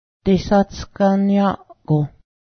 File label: pale, Cayuga; desatsganaˀgyáˀgǫh; pale (v.)